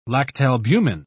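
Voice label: "lactalbumin", British English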